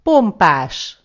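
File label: awesome, Finnish; gcompris-data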